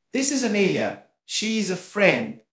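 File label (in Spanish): Voice Acting, Spanish, male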